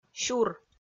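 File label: "сюр", Komi, female; kpv audio dict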